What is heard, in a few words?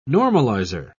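"normalizer", British English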